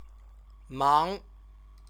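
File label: "mang", Chinese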